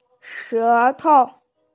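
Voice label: "she2tou5", Mandarin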